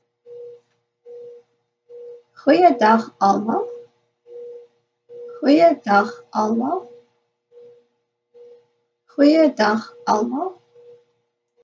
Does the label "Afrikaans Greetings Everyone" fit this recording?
Yes